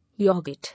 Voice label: "Yoghurt", English

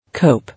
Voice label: "Cope", English

